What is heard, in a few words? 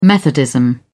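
"methodism", English, British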